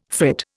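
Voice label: "frit", Indian English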